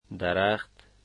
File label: "tree", Dari